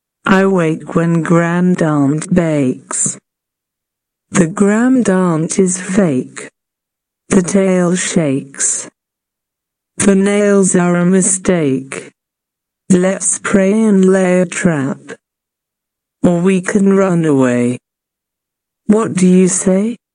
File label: Slow